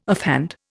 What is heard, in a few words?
"offhand", Indian